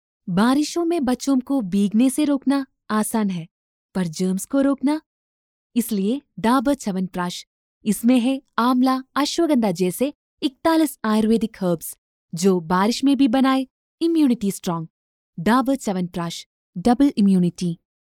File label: female hindi voice over narrative